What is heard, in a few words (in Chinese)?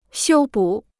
"修补", Chinese